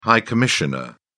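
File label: "high commissioner", English, British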